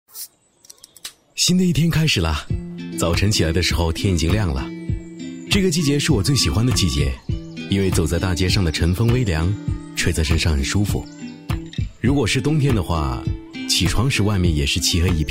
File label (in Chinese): 男 个人旁白 自然轻松阳光; 大气主流